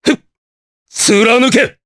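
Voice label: Vox Skill, voices, Japanese; Nicx; kings raid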